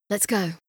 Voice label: Female; Skyrim Special Edition; UVNPC Assigned; Dawnguard; Beleval